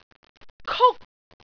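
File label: "kuk1", Cantonese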